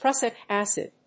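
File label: "prussic acid", English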